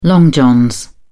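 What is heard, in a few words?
"long johns", British English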